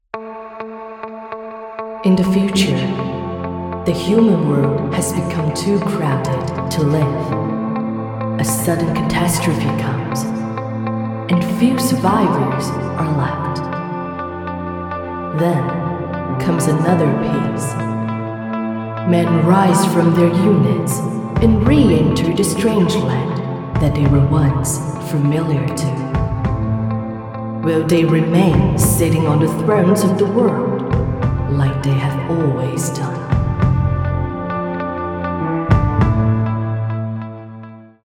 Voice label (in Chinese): 英文末世感女王